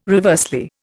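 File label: "reversely", Indian English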